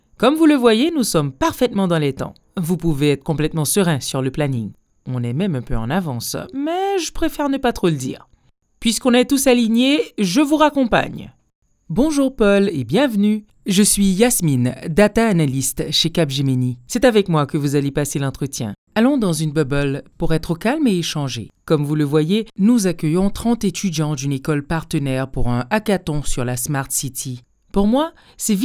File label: French Young middle age woman